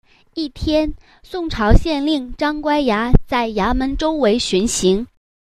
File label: free online chinese courses; kids